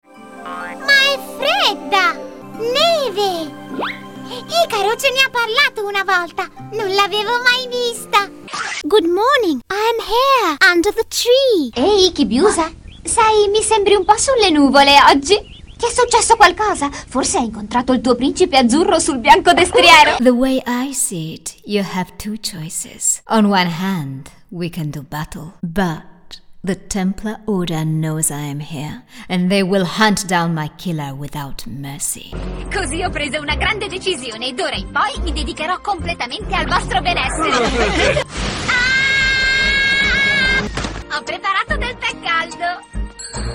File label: Animation mix ITALIAN ENGLISH